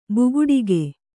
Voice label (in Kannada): "ಬುಗುಡಿಗೆ", Kannada